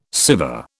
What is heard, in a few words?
"Siva", British